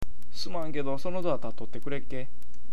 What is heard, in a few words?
"tattoku", awaji-ben